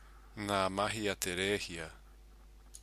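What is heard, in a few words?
"nga mahi a te rehia", Māori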